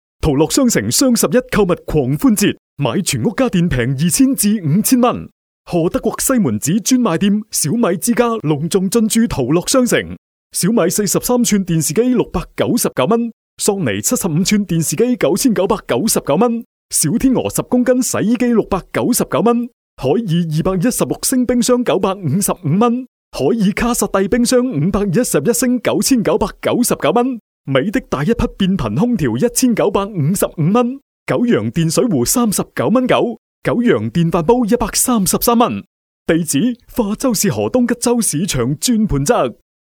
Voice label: 粤男 广告 促销 家电; 图乐商城

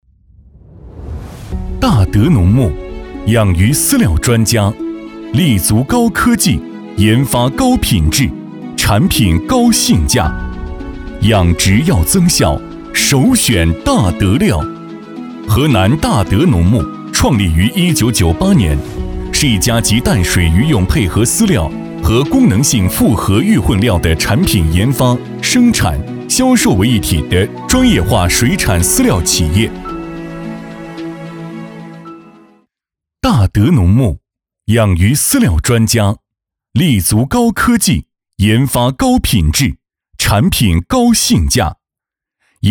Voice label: 男 科技感; 大德

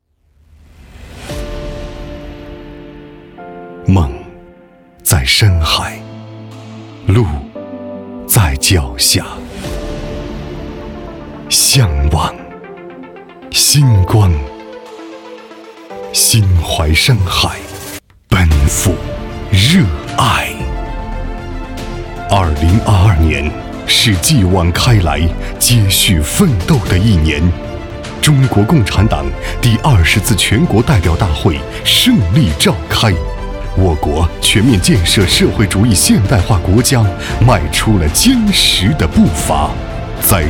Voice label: 男 汇报片 品质大气; 路 在脚下

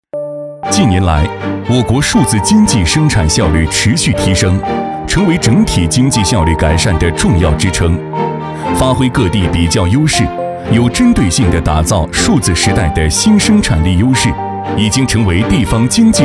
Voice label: 男 科技感; 先导集团

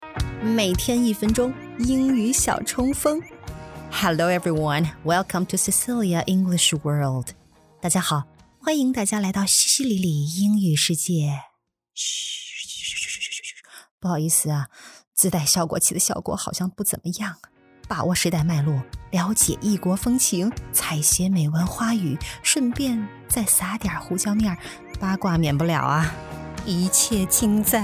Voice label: 英女 趣味英语

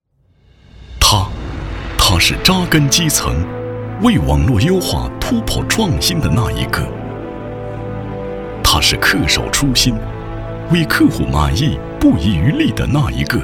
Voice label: 男 企业宣传片 工会; 中国移动工会

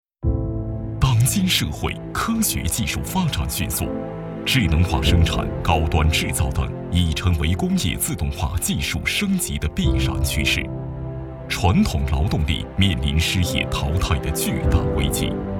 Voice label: 男 智能生产宣传

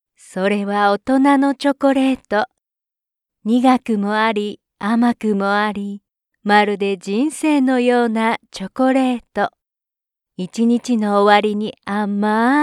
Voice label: 日语女 角色 Character adult

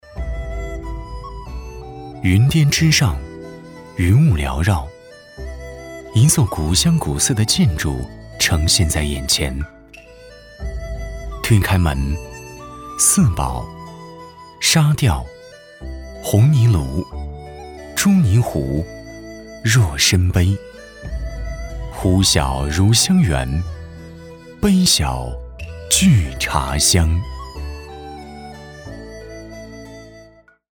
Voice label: 男 解说 古韵唯美

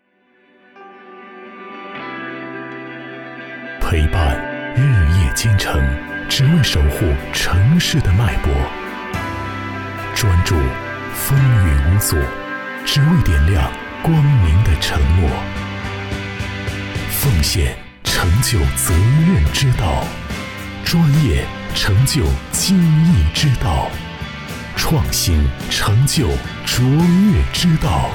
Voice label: 男 宣传片 电力; 上海电力

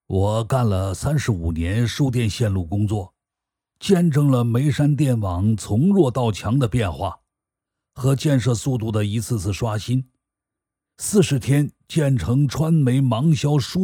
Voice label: 角色 老人样音

